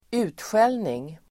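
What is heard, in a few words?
"utskällning", Swedish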